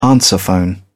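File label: "answerphone", British English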